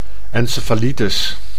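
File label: "encefalitis", Dutch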